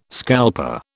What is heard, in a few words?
"scalper", British